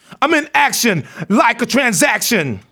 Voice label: RAP PHRASE; RAPPER 1-2